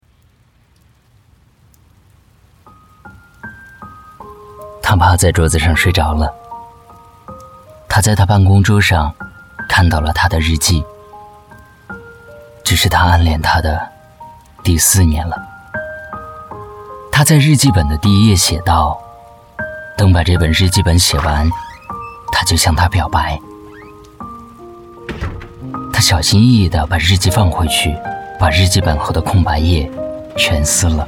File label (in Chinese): 情感旁白; 失去才倍感珍惜